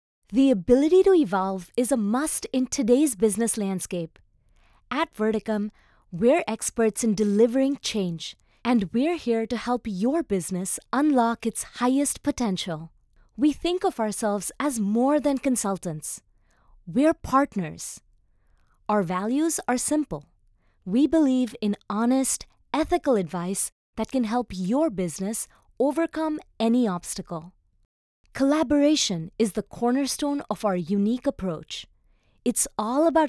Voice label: corporate explainer, female voice